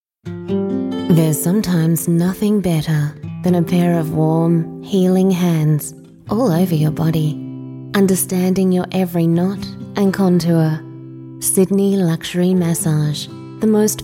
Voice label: australian voice commercial, Australian Female Voice